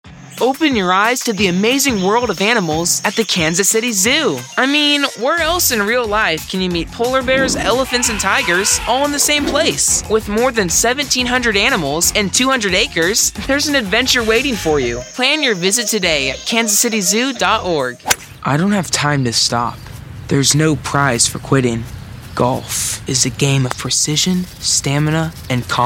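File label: american, Friendly Warm Voice Over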